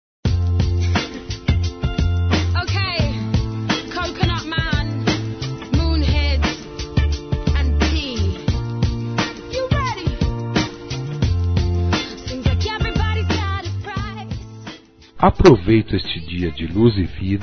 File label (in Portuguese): Páscoa Neutra Masculino; Telemensagens